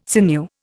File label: "sinew", Indian